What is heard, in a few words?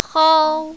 "hao1", mandarin, female